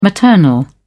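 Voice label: "maternal", British English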